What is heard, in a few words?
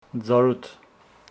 Homshetsi forest